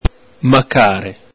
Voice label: "macare", Venetian